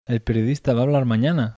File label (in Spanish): acento oracional interrogativa, bizkaia masculino